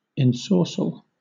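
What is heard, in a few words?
"ensorcell", British English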